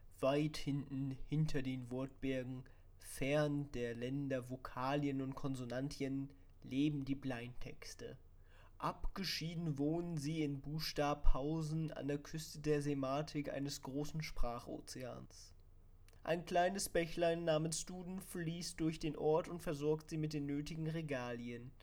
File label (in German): Audio-Technica AT2020 USB Hörprobe; Test Review